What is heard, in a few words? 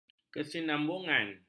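"kesinambungan", Malay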